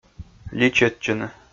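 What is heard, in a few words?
"личӧдчыны", Komi, male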